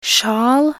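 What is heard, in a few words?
"sjal", Norwegian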